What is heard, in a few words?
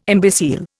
"imbecile", Indian